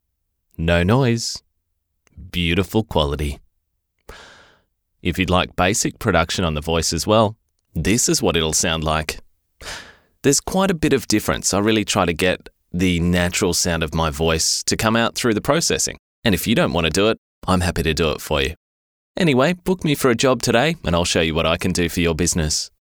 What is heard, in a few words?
studio sample